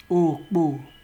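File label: "okpo", Nigerian Pidgin, male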